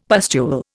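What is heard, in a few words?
"pustule", Indian English; Dictionary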